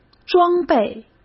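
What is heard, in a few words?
"装备", Mandarin Chinese; hsk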